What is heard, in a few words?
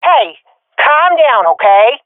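taking fire friendly, swat female; vo; Counter Strike Model Pack; CS:GO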